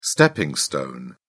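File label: "stepping stone", British English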